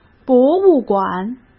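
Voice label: "博物馆", Mandarin Chinese; chinese-flashcards; hsk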